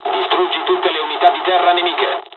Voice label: ground attack, dialogs italian; War Thunder

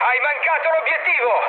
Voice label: bomb failure mood high, speech it; War Thunder

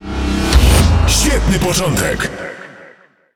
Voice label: Pl Speech First Kill; World Of Warships

